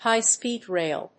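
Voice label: "High-speed rail", English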